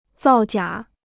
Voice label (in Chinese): "造假", Chinese